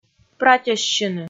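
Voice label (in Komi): "пратьӧссьыны" Komi, female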